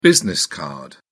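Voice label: "business card", British English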